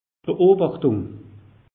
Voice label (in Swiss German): "beobachtung", alsace; meteo